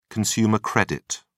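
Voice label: "consumer credit", British English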